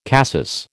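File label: "cassis", American English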